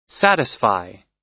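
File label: "satisfy", US English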